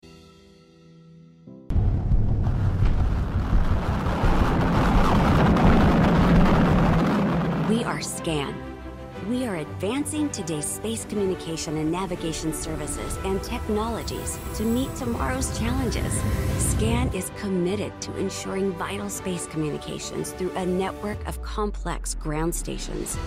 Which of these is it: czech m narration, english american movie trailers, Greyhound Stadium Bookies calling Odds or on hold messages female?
english american movie trailers